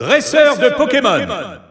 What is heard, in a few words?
French Announcer; Pokémon Trainer; SSBU